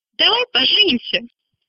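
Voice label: will you marry me, female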